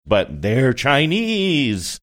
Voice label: saying but theyre chinese scary

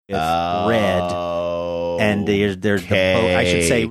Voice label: drawn out okay

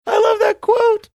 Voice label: saying i love that quote funny

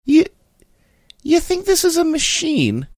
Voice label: saying you think this is a machine funny

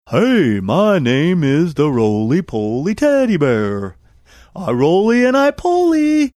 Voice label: funny childrens show; hey my name is roly poly teddy bear

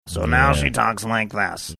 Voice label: saying so now she talks like this gruff

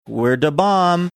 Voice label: singsongy; were da bomb

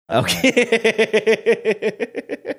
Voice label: saying okay laughing

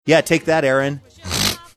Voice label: yeah take that snorting

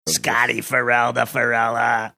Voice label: saying weird voice; scott ferrall

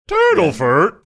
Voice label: saying turtle furt funny

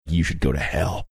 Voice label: quietly saying you should go to hell

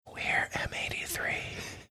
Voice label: whispering

